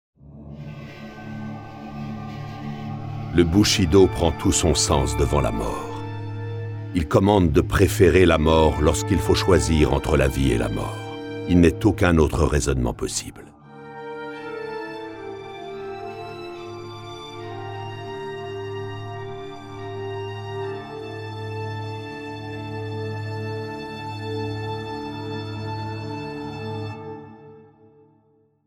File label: Himeji Castle, Speech, French, Wonder; Civ5